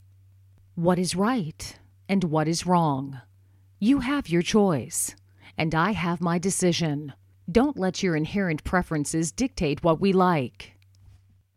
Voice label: 外籍英女 演讲独白配音

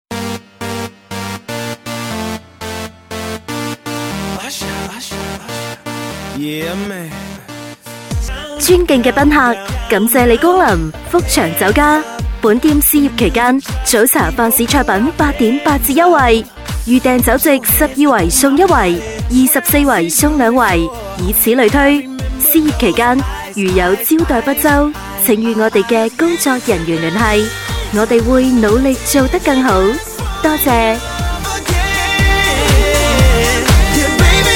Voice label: 粤女 粤语普通广告; 福祥酒家; 酒类广告

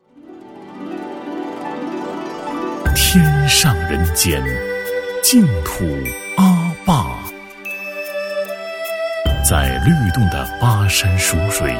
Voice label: 男 专题; 阿坝

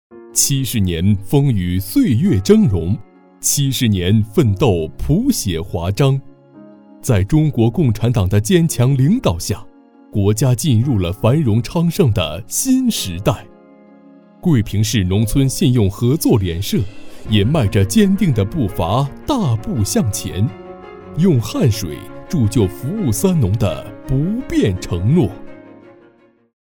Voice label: 男 党建; 桂平市农村信用合作联社

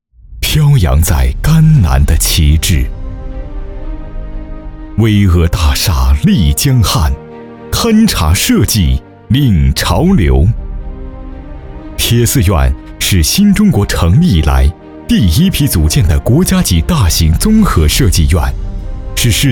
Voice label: 男 浑厚专题

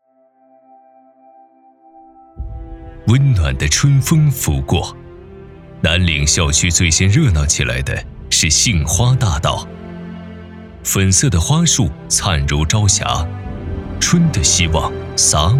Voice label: 男 专题类; 吉林大学4集专题片第一集巍巍吉大

